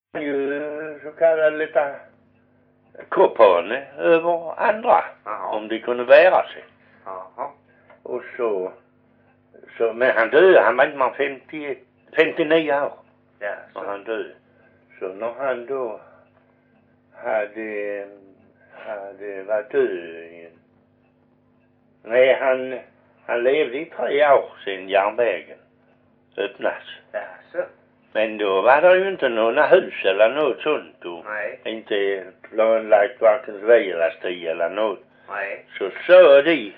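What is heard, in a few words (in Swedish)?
Perstorp Skåne, dialekter, svenska sagor; Folkminnen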